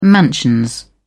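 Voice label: "mansions", English, British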